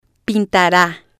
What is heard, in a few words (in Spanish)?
"pintara", spanish